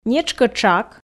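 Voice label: "нетшкӧ тшак", Komi, female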